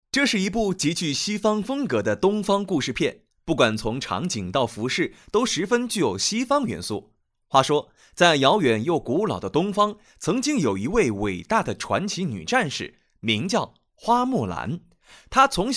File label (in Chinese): 电影解说